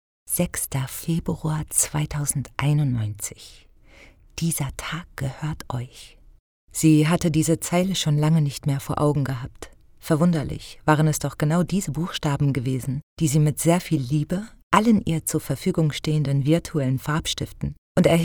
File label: DE audiobook